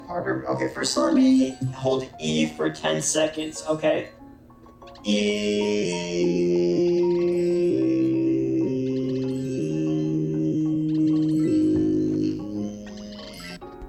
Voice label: eee voicechange